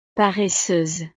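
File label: "paresseuse", French; estados animo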